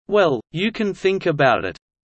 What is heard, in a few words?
low; studying abroad